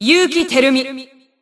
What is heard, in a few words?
System Voice; BBCP; Bullet